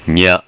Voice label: "nya", kana

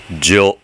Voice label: "zyo", kana

